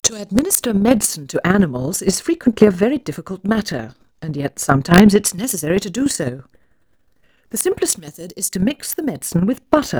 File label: Female speech English Mono output noised, 3bit